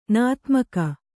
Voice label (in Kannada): "ನದೀಮುಖ", Kannada